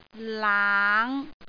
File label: "laang5", cantonese